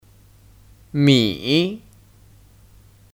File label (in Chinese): "米", Mǐ